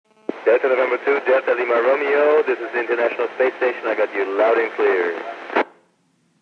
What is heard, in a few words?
ISS audio cq